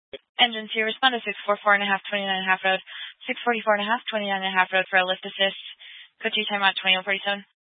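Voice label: Fire Dispatch, scanner audio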